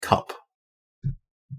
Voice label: "cup", English; the home

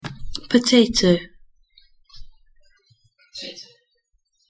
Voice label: pronunciation en potato; fruit and vegetables

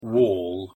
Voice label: "wall", English; the home

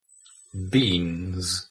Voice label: "beans", English

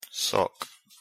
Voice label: "sock", English

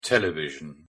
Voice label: "television", English; the home

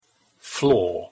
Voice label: "floor", English; the home

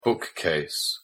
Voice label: "bookcase", English; the home

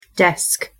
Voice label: "desk", English; the home

